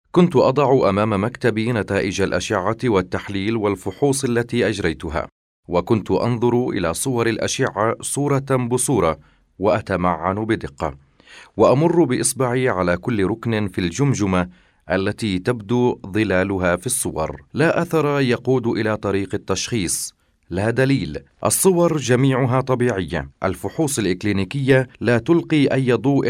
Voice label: Arabic Male